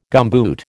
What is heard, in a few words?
"gumboot", American